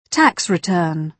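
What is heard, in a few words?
"tax return", British English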